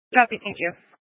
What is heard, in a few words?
Police Department Dispatch